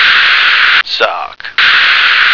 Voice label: "sock", American

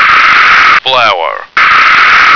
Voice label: "flour", American

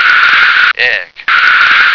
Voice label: "egg", American

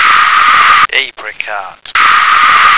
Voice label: "apricot", American

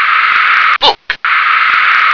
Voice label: "book", English

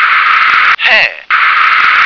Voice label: "hare", English

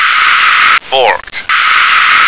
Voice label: "fork", American

